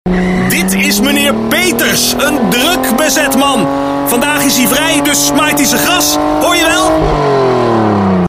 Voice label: hard sell racecourse

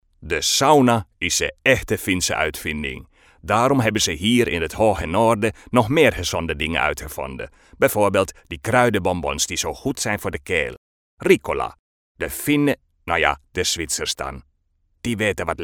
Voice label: accent Finnish

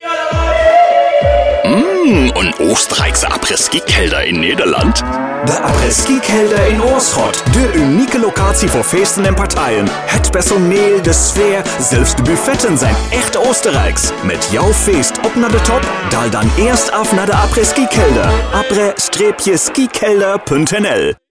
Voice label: accent Austrian; ACCENT OOSTENRIJK

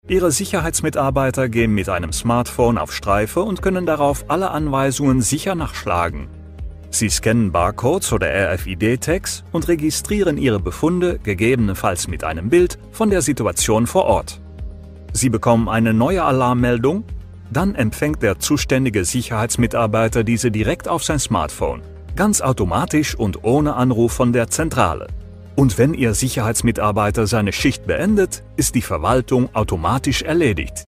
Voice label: German vo security

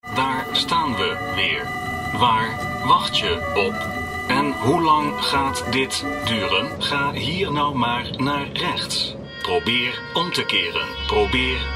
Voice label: character audio play cocky GPS

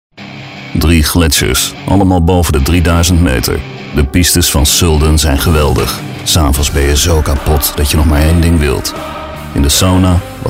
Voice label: hard sell intense

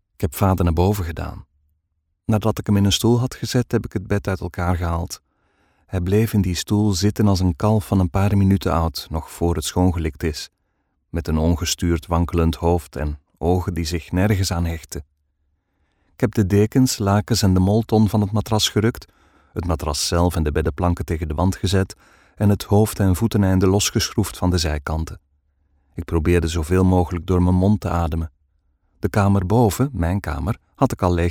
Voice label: NL Flemish audiobook; LUISTERBOEK

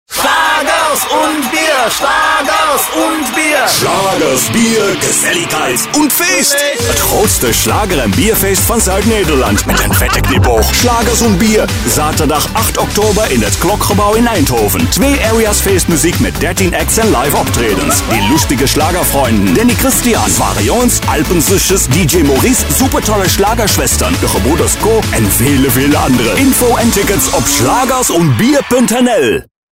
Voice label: medium sell German style; ACCENT DUITSLAND

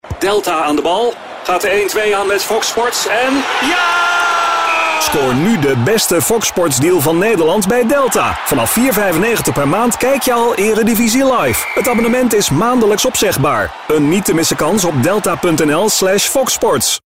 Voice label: vo radio commercial medium sell football

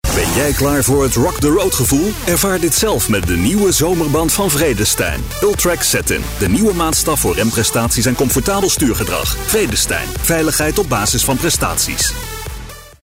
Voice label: hard sell summer tires

